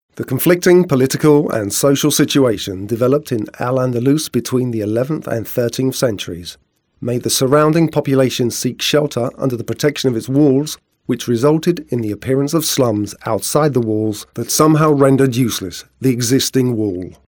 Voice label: docu, Voices